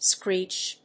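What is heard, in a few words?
"screech", English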